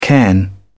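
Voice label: "cairn", English, British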